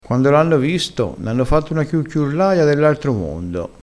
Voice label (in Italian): "chiucchiurlaia", crespinese